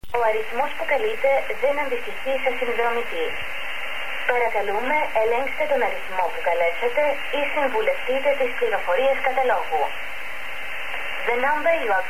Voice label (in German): Kein Anschluss, greece